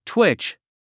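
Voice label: "twitch", English word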